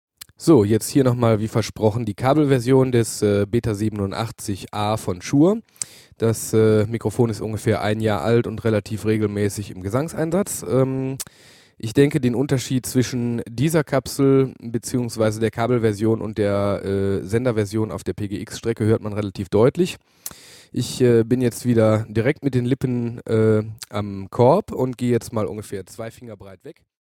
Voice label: Beta87, vocal mics